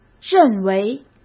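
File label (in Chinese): "认为", Mandarin Chinese; hsk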